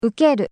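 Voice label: "ukeru", Japanese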